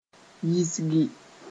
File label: "yisgi", Mooré